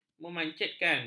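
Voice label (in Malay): "memancitkan", Malay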